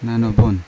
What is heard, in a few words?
"nanobon", Yawa; stomach